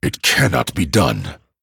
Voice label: Male Dragon

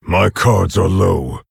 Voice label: Orc; Nerzhul

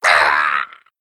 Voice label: Male Kobold Death

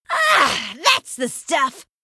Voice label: Female Human Play

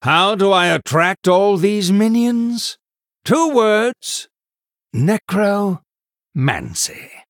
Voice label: Male Human Flavor

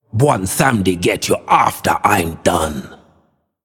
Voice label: Male Troll Play

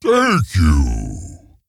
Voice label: Ogre Emote Thanks

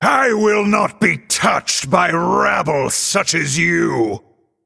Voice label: Male Night Elf; Hero; Mission8 Exchange

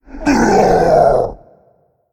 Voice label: Male Demon Death

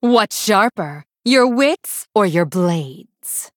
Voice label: Female Blood Elf Emote

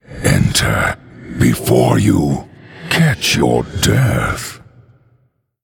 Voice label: Male Undead; The Lich King

